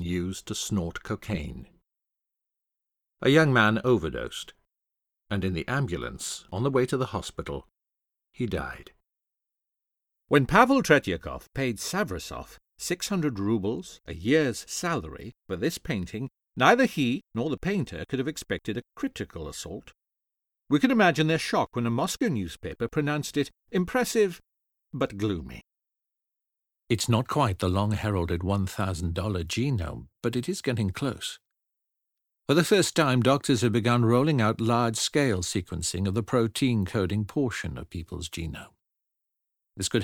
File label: Narration and Podcast Sample